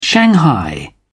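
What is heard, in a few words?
"shanghai", British English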